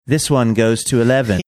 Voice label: british

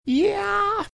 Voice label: quietly saying yeah

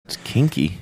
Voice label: quietly saying its kinky

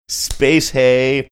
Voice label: saying space hay sing songy

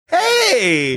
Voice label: drawn out hey

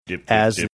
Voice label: saying dip dip dip deep voice

